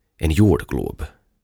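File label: "En Jordglob", Swedish; Maapallo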